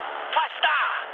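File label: German near waypoint mood high, speech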